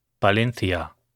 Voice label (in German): "valencia", spanisch